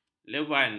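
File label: "levant", Malay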